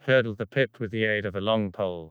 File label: CREAKY